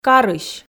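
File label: "карысь", Komi, female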